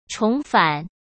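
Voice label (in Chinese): "重返", Mandarin Chinese; chinese-flashcards; hsk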